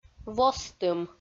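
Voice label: "востым", Komi, female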